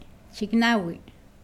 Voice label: Nawat palabras nueve; pipil

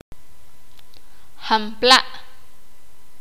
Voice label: "cuando", sakapulteko

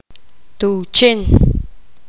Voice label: poqomam armadillo

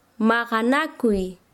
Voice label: "pelear", Quechua Chanka

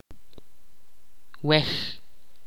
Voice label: "boca", Ch'orti'

